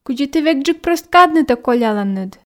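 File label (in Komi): кыдзи ті векджык прӧст каднытӧ колляланныд, Komi, female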